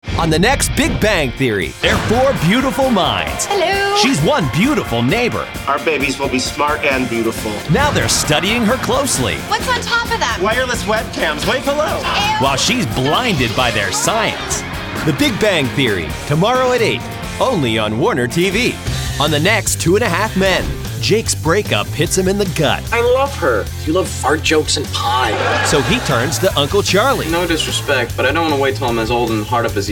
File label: TV Promo